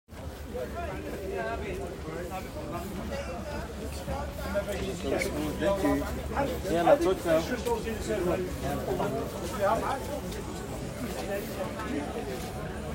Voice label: People talking market + radio, Rotterdam Field Recordings